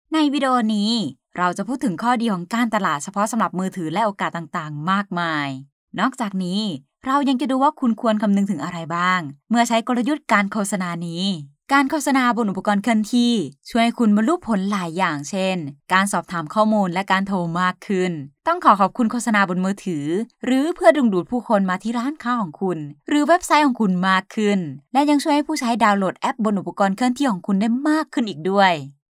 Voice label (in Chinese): 外籍泰语女年轻活力